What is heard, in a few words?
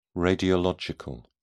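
"radiological", British English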